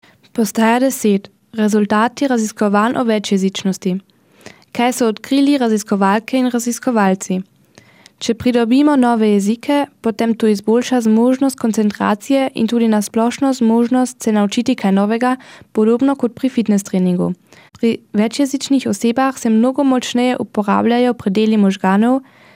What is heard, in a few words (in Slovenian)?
slovenski